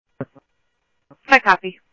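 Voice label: Simulcast police department, scanner audio trunk; Mesa Simulcast; MESA PD Superstn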